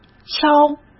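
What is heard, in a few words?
"敲" Mandarin Chinese; chinese flashcards; hsk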